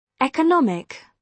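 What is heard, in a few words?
"economic", British English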